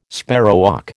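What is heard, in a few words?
"sparrowhawk", American English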